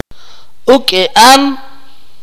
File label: "be intoxicated", Akateko